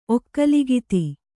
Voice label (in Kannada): "ಒಕ್ಕಲುಗಿತ್ತಿ", Kannada